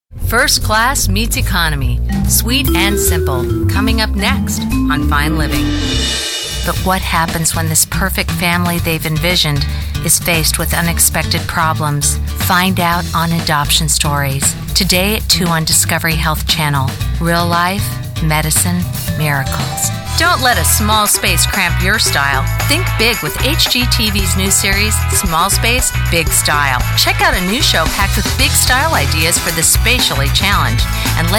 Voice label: Promo